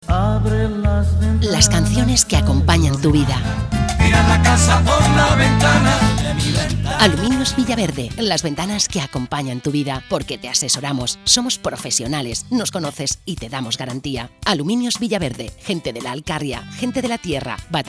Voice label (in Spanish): CUÑA ALUMINIOS VILLAVERDE CANCIONES